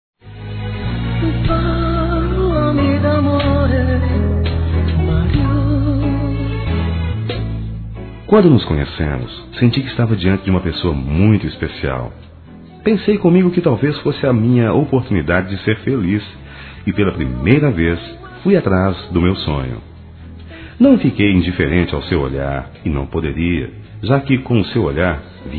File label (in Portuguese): Nosso Noivado Masculino, Telemensagens; Parabenização